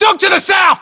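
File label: British threat dog south, battlechatter, english; localized english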